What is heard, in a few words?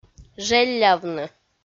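"желлявны", Komi, female; audio dict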